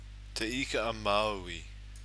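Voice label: "te ika a maui", Maori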